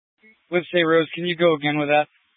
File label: Police Department Dispatch; Grand Junction